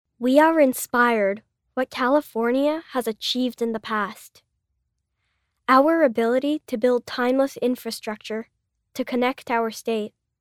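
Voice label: American English, child; voice over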